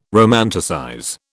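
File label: "romanticize", British English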